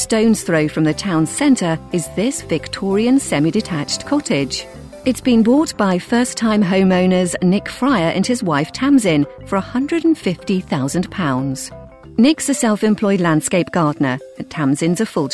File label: narration property